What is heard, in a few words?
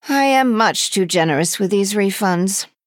Female High Elf After Selling